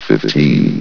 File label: "fifteen", vox